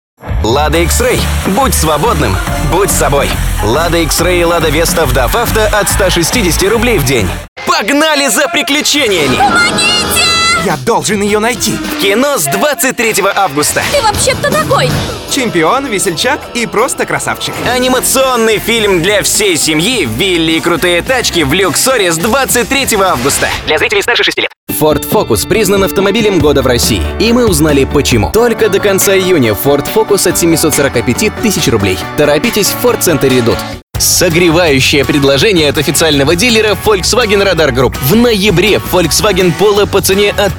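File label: male voice, Russian; muzhskie golosa, diktory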